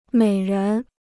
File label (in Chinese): "美人", Chinese